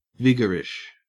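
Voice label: "vigorish", Australian English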